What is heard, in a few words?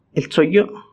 "eltsoyo", Náhuatl; vellos axilares; armpit hairs